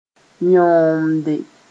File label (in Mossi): "yõomde", moore